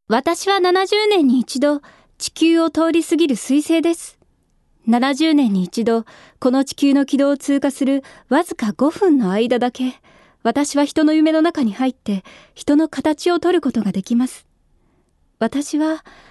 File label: female voice